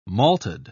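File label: "malted", English, uk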